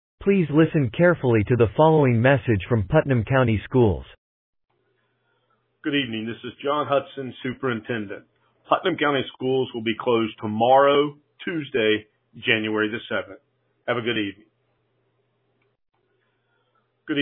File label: Voicemail